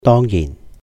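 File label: "Dong Yihn", Cantonese; of course; 當然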